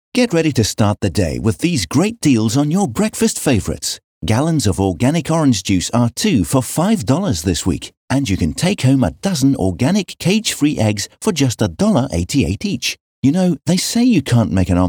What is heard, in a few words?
Casual Conversational